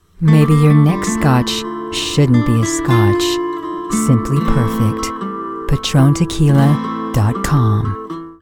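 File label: American English, commercial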